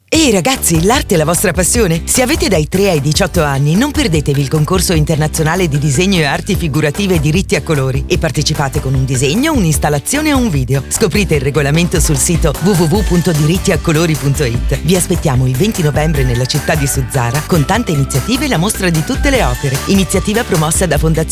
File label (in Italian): Spot radiofonico; xiv concorso disegno; 14 concorso disegno; esposizione arti figurative